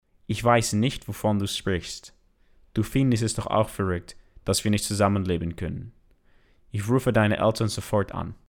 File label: German American Accent with Open Mouth